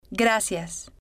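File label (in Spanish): "gracias", spanish, female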